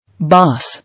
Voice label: "Boss", English